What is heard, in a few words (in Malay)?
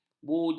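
"bujal", Malay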